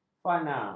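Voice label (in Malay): "fana", Malay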